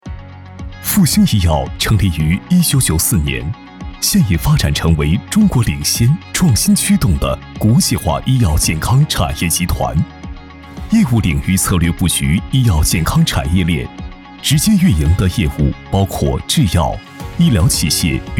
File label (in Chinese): 男 宣传片 时尚科技